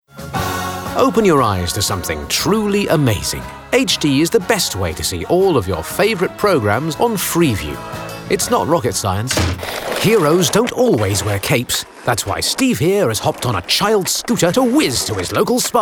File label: commercial